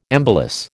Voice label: "embolus", American

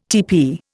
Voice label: "teepee", Indian

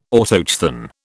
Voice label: "Autochthon", British

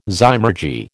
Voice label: "zymurgy", American

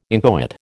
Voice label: "inchoate", American

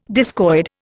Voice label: "discoid", Indian

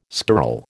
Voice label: "skirl", American

